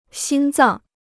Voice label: "心脏", Chinese